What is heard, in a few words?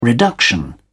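"reduction", English, British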